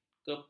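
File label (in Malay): "kepam", Malay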